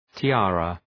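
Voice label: "tiara", English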